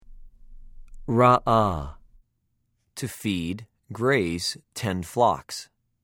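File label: "רָעָה", Hebrew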